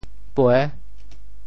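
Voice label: "pue6", Teochew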